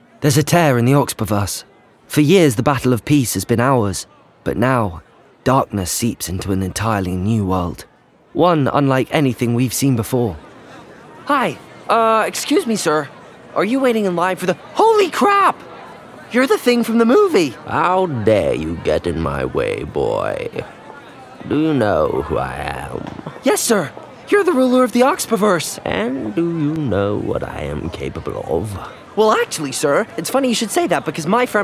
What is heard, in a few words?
Character; Natural Accent: Neutral